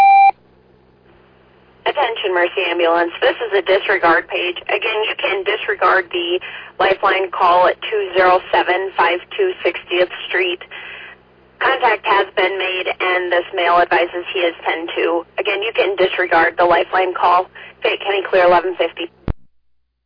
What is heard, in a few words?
Oelwein Ambulance, dispatch